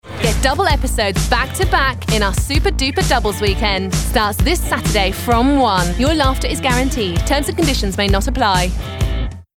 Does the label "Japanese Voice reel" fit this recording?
No